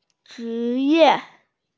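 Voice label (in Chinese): "zhi2ye4", Mandarin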